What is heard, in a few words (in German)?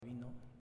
"Vino" Spanisch